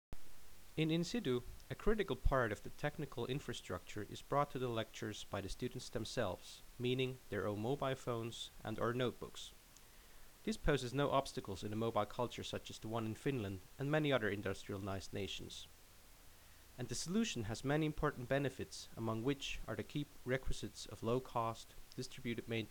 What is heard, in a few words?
Narration